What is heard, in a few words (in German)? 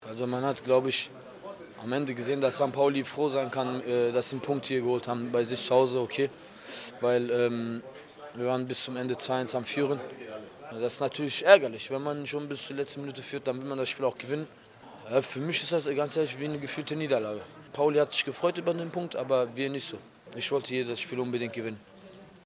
Audiokommentar; Deniz Naki; FC St Pauli